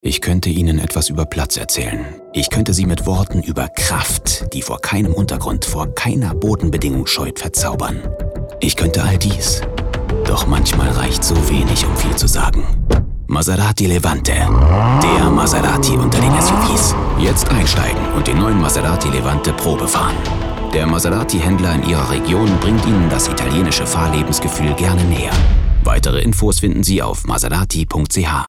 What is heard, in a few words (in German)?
Sanft